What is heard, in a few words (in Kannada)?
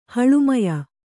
"ಹಳುಮಯ", Kannada